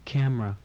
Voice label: "camera", English